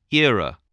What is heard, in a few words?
"era", voice, english